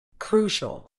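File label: "crucial", British English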